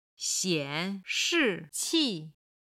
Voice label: "xian3 shi4 qi4", chinese